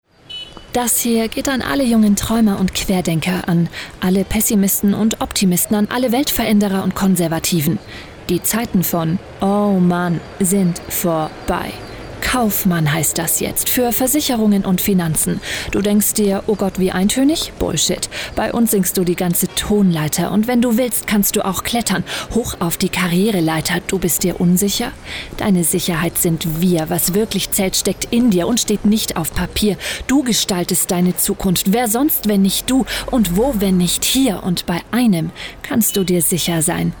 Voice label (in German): Radiospot Ausbildung; VFM; versicherungsmakler azubi studium